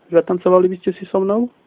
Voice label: dance, slovak

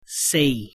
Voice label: cantonese, numbers

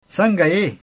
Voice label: how are you, pashto; څنگه يې؟; (ta sanga yee?)

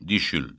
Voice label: sunday, Breton

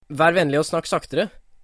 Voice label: slowly, norwegian

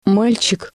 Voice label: boy, russian; мальчик; Rosetta Stone Russian

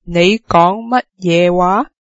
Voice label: what did you say, cantonese

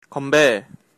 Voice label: cheers, Korean

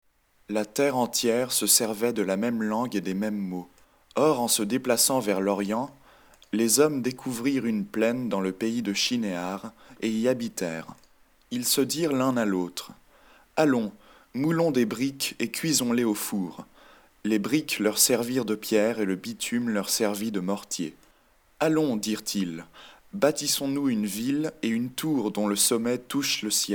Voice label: French; ALors toute la terre vniuerſelle eſtoit dung meſme language & parolle.

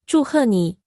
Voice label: congratulations, mandarin

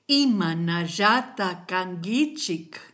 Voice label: how are you, kichwa

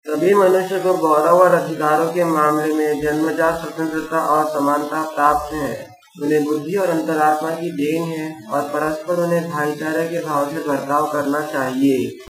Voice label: udhr hindi

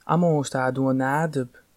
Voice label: long time no see livonian

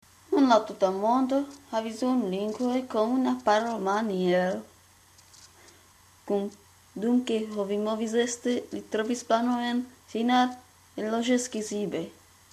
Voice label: ido

